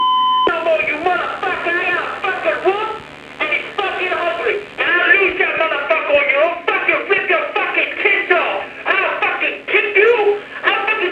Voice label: Our Answering Machine